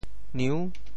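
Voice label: "niu6", Teochew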